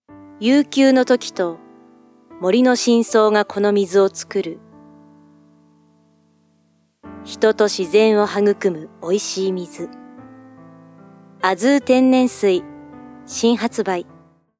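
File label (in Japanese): narration, Japanese voice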